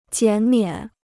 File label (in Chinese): "减免", Chinese